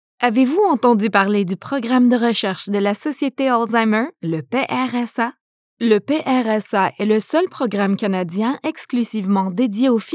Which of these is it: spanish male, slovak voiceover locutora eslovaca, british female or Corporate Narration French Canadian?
Corporate Narration French Canadian